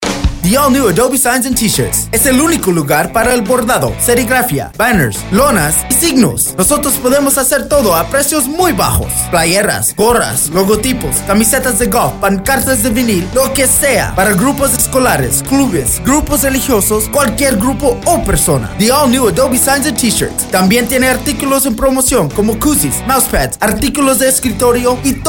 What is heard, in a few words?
spanish commerical; obe signs t-shirts